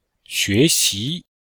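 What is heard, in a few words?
"xue2xi2", Chinese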